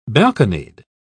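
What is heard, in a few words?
"balconied", British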